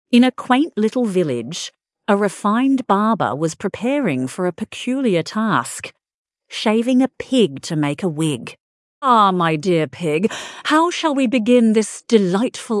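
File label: female Australian story; Barber Barber Shave a Pig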